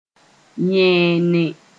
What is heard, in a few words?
"yẽene", moore